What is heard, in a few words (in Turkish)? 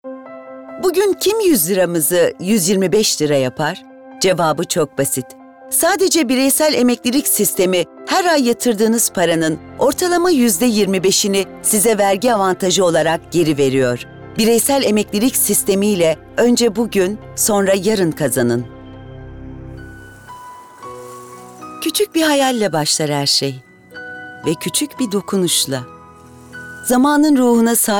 REKLAM